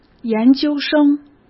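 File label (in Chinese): "研究生", Mandarin; chinese-flashcards; hsk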